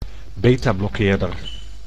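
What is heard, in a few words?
"beta-blokkeerder", Dutch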